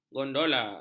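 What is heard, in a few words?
"gondola", Malay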